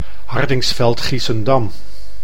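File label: "Hardinxveld-Giessendam", Dutch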